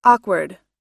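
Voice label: "awkward", US English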